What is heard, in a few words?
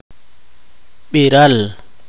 poqomchi; anuncio informaci n